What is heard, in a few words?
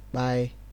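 "kpai", Nigerian Pidgin, male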